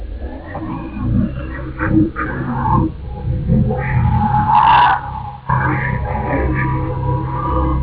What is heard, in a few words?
pleasant, speech